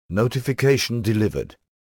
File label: Notification - Male